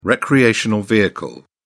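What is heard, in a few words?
"recreational vehicle", British English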